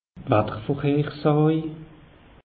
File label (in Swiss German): "wattervorhersaje", alsace; meteo